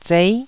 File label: "zei9", taiwanese syllables